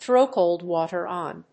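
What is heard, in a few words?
"throw cold water on", English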